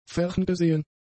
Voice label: "ferngesehen", german; adjectives

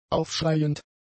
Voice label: "aufschreiend", German; adjectives

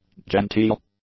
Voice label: "genteel", English; adjectives

